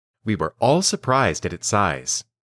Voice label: Dictation